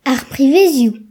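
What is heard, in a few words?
"toilet", Breton; gcompris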